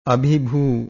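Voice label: "abhibhuu", Pali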